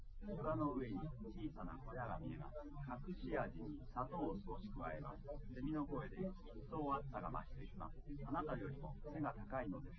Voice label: male live rec; SiSEC08; SiSEC underdetermined

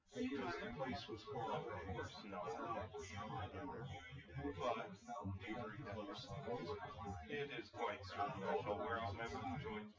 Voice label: male synth conv 250ms 5cm sim; SiSEC08; SiSEC underdetermined

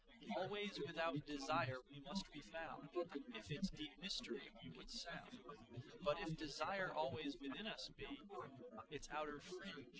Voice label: male4 inst sim; SiSEC08; SiSEC underdetermined

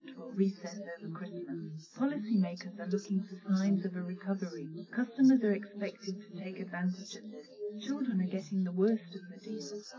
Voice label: female, test2_female3_srec_130ms_20cm_sim_3; SiSEC10; underdetermined

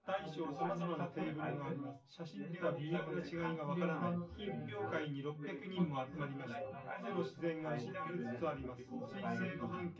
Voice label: male synth conv 250 ms 5 cm

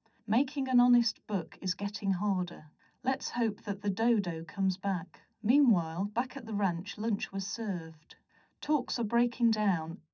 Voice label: female4 srec 130ms 50cm; SiSEC11; underdetermined

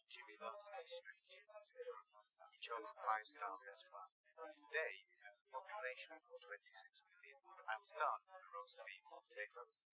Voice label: male, live rec; SiSEC08; SiSEC underdetermined

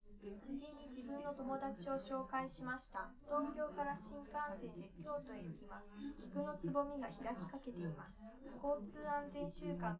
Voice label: female live rec; SiSEC11; underdetermined

